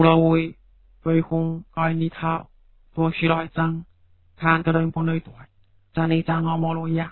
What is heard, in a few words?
Fuzhou mono